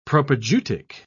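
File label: "propaedeutic", British English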